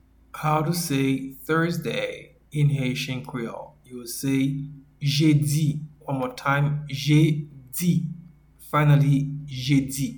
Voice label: "Jedi", Haitian Creole; “Thursday” is translated as “Jedi” in Haitian Creole